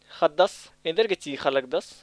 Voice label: Kurux; xaddas engaage c~iixa lagdas?